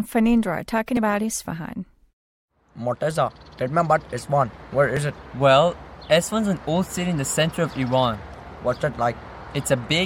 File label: english conversation